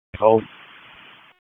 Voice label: PS TAC, p25